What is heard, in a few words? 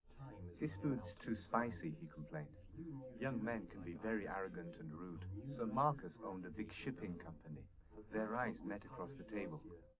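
male, live recording; SiSEC11; underdetermined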